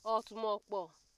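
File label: "otumokpo", Nigerian Pidgin, female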